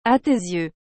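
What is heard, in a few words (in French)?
"À tes yeux", French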